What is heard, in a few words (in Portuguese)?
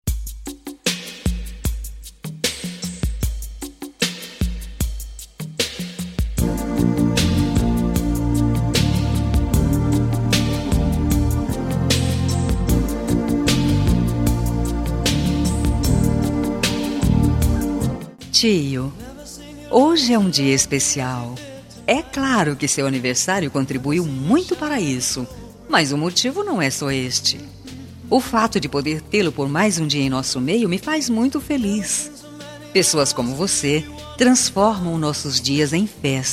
aniversario TIO FEM, telemensagem